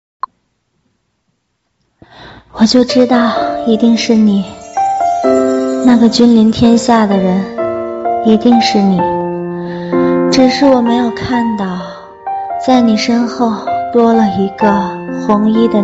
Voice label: 古风独白

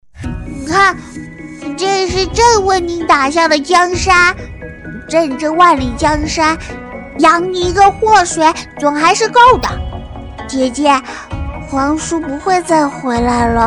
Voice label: 男萌娃

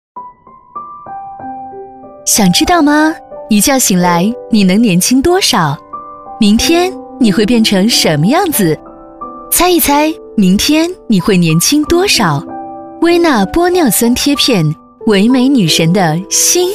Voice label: 活泼 且 温柔 且 时尚 样音

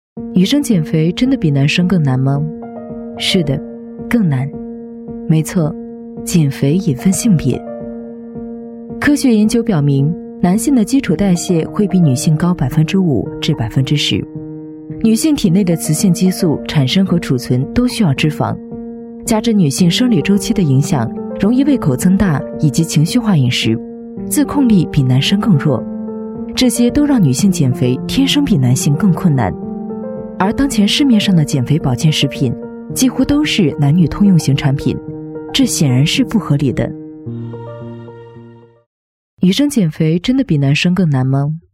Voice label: 宣传企业; 碧生源

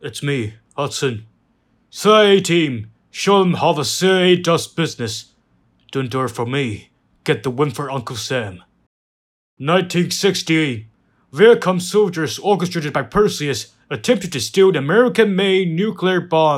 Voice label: 播报员

